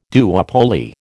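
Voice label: "duopoly", American English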